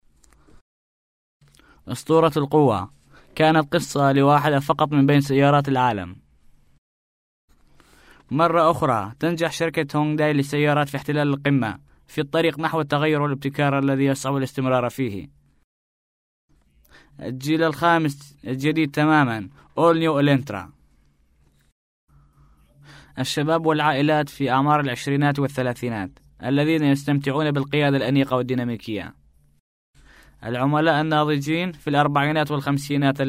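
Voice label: Arabic, male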